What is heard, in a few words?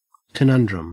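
"conundrum", Australian English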